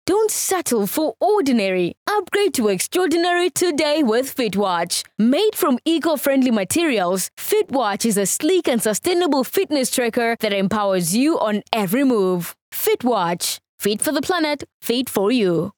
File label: Calm Conversational; Fit Watch